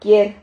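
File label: "quier", Spanish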